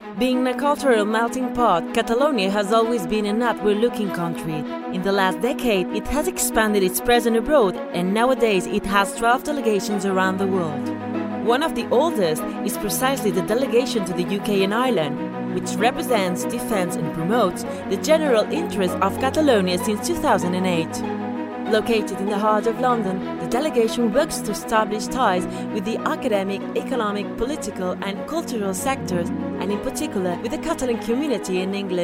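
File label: English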